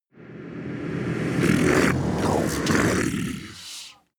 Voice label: demon dark voice reverse end of days

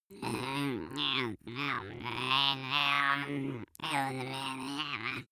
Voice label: goblin mumble

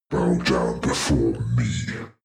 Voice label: demon dark voice thick speak bow down

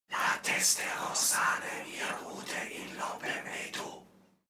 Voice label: evoke whisper

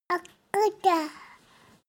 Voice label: baby talk

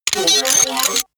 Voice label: robot voice click

